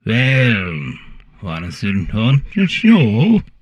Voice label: humanoid portrait male; Stellaris; humanoids